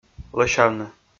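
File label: "лӧсявны", Komi, male; kpv audio dict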